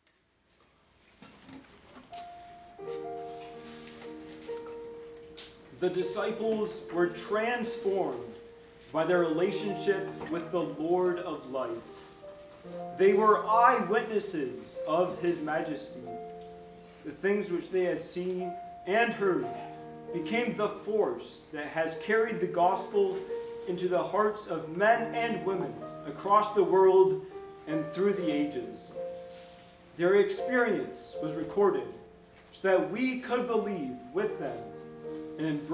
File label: Narration; Eyewitnesses of his majesty; Choir